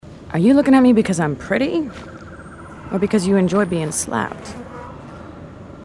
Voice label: female marines

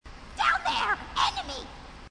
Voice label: grunt; h2dialogue; grunt crazy

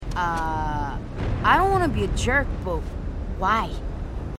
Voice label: female marine

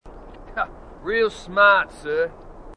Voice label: marine, aussie; h2dialogue

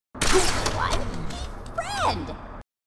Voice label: grunt; h2dialogue; grunt crazy

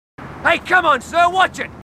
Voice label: marines, aussie; h2dialogue

